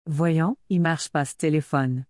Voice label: "Voyons, y marche pas ce téléphone !", Québécois French